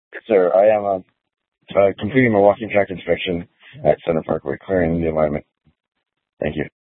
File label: Public Transit System Light Rail Ops, scanner